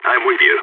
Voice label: following friend, balkan; vo

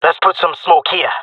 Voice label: request smoke, jungle male; player vo; Counter Strike Model Pack; CS:GO

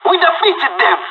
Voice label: radio bot end clean, pirate; Counter Strike Model Pack; CS:GO

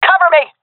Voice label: request coverme, seal fem; vo; Counter Strike Model Pack; CS:GO

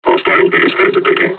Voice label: taunt, combine charger; Half Life Alyx

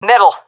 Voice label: loc mid, seal fem; vo; Counter Strike Model Pack; CS:GO

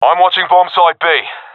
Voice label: guarding bombsite b, professional epic; vo; Counter Strike Model Pack; CS:GO

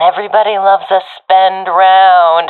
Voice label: request spend, professional fem; vo; Counter Strike Model Pack; CS:GO

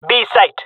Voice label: jungle male; player vo; Counter Strike Model Pack; CS:GO

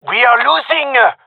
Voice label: round losing, gendarmerie male; vo; agents

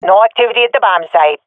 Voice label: bombsite clear, swat fem; vo; Counter Strike Model Pack; CS:GO

